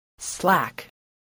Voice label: "slack", voice, spelling